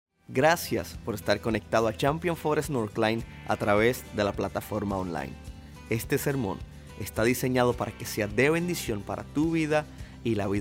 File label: Sermon Spanish